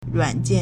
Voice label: "ruan3 jian4", Chinese

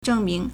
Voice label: "zheng4ming2", Chinese

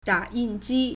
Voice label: "da3 yin4 ji1", Chinese

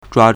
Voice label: "zhua1", Chinese

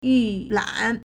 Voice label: "yu4lan3", Chinese

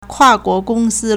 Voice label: "kua4guo2gong1si1", Chinese

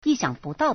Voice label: "yi4 xiang3 bu4 dao4", Chinese

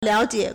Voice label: "liao3jie3", Chinese

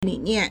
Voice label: "li3nian4", Chinese

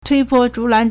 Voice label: "tui1bo1zhu4lan2", Chinese

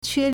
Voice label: "que1", Chinese

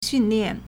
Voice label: "xun4lian4", Chinese

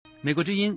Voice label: "mei3guo2zhi1yin1", Chinese

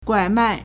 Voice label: "guai3mai4", Chinese

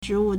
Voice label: "zhi2wu4", Chinese

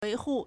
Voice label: "wei2hu4", Chinese

